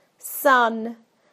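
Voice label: "sun", British English; PictureDictionary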